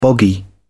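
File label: "boggy", British English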